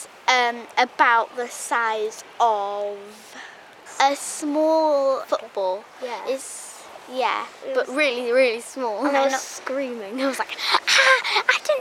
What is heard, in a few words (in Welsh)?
Cymraeg Crabbing, Storiau o’r Afon